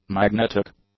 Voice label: "magnetic", English; adjectives

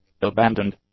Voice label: "abandoned", english; adjectives

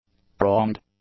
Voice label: "pronged", english; adjectives

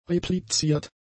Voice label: "repliziert", German; adjectives

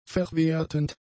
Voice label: "verwertend", German; adjectives

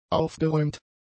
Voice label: "aufgeräumt", German; adjectives

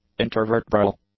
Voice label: "intervertebral", english; adjectives

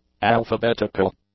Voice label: "alphabetical", English; adjectives

